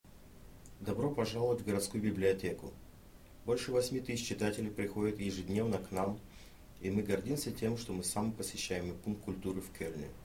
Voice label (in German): russisch laut